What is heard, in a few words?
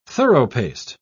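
"thoroughpaced", British English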